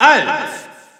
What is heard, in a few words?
French Announcer; Alph; SSBU